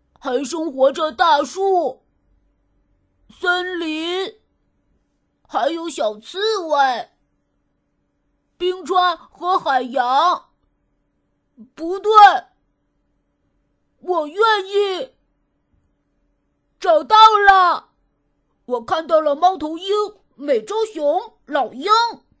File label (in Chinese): 女 童声 憨厚男孩